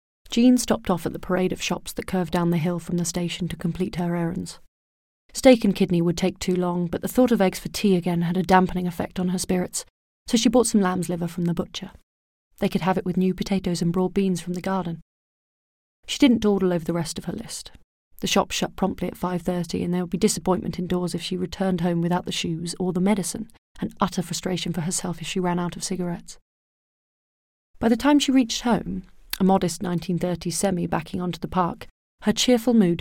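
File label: British English, Narration; voice demos; GoLocalise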